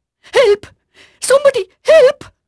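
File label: Dutch female, voice; Pirates Of The Caribbean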